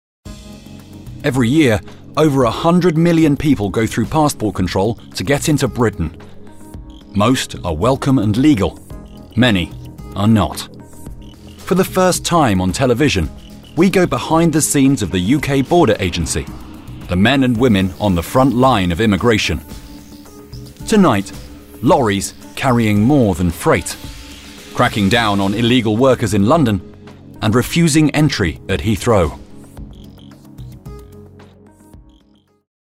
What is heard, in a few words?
english british documentaries